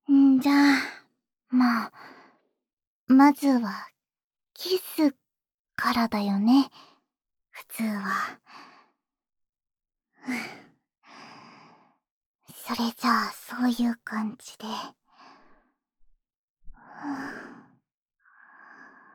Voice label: ASMR